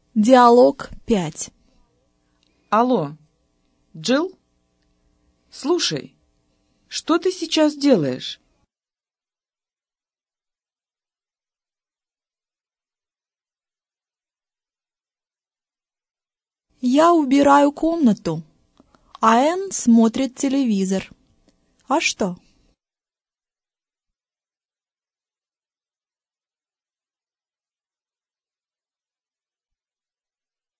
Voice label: dialog, russian